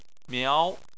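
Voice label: "miao", Chinese, second tone; Chinese Surnames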